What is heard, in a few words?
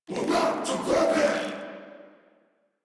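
Reload, English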